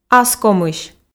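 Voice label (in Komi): "аскомысь", Komi, female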